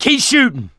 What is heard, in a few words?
battle cry tank, mechanic, survivor, voice